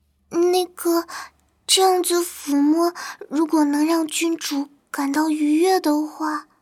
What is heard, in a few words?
chinese